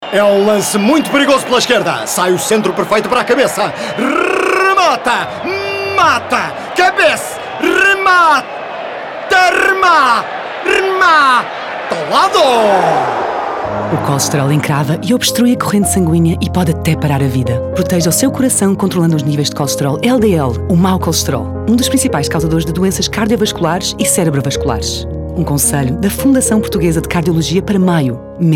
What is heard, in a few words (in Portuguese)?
SPOT RADIO; FUTEBOL